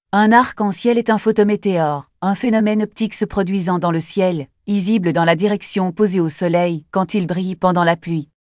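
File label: speaker, American English; piper-voices; siwis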